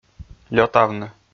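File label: "лётавны", Komi, male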